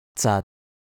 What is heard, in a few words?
"zat6", cantonese